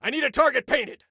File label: Need, Soldier Male